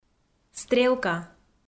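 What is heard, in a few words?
"стрелка", Komi-Zyrian, female; audio dict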